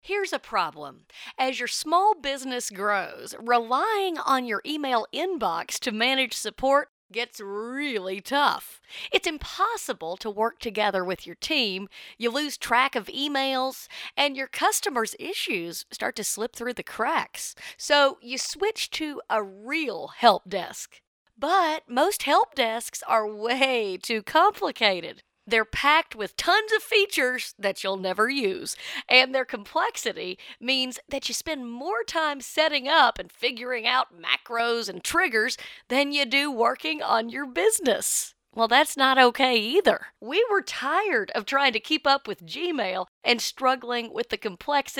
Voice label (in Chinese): 自然解说